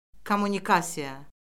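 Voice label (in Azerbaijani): "kommunikasiya", Azerbaijani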